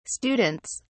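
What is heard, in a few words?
"students", English, uk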